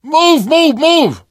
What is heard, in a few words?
emphatic go, survivor voice